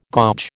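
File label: "gouge", American English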